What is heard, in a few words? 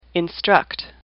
"instruct", English; AWL